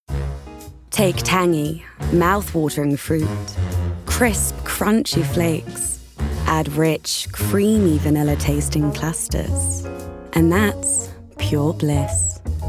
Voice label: Smooth, Sexy, Cool, RP ('Received Pronunciation'); Special K

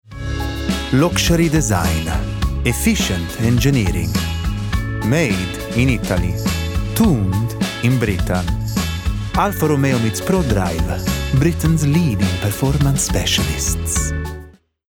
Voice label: Cool, Contemporary, Commercial, Italian; Alfa Romeo

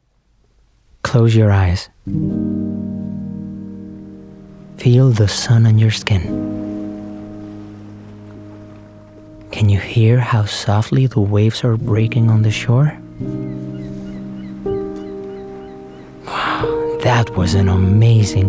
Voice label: Promo, Cool, Smooth, Confident, English; Cala Saona; English, Spanish

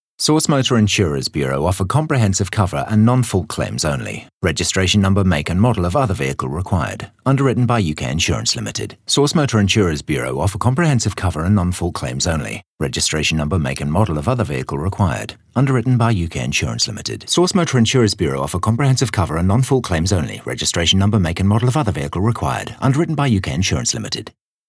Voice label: Legals, Straight, RP ('Received Pronunciation')

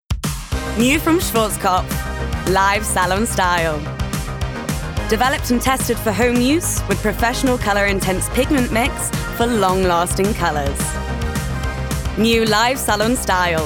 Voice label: Young, Fun, Warm, RP ('Received Pronunciation'); Schwarzkopfa

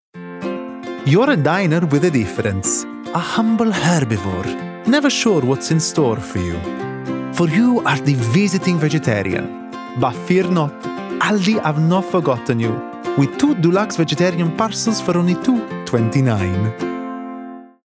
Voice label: Commercial, Bright, Warm, Friendly, Italian; Aldi

